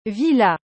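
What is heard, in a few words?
"villa", French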